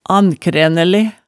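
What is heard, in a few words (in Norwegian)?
"annkrænele", Hemsedal dialect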